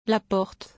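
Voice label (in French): "la porte", French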